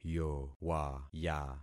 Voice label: "yowaya", concat syllables; Affixing experiment